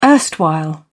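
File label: "erstwhile", British English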